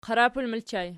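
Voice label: "qarapul" ergative plural, Archi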